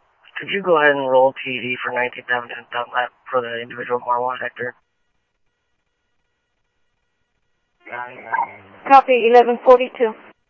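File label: Light Rail Security Light Rail, scanner audio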